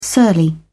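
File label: "surly", British English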